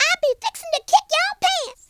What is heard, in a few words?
illgetyou, Southern Belle; speech